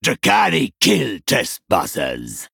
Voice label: Male Troll Play